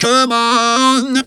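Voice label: "come on", Funky Vocals; USB Soundscan vol.18; AKAI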